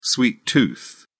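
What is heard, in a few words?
"sweet tooth", British English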